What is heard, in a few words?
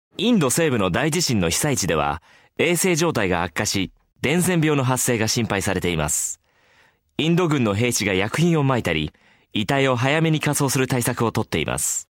Japanese informative, japanese